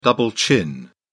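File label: "double chin", British English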